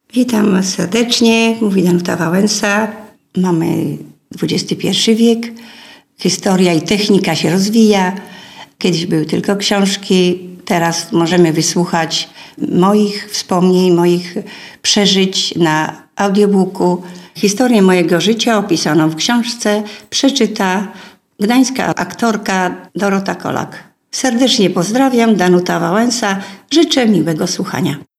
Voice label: audiobook; marzenia i tajemnice